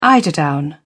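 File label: "eiderdown", British English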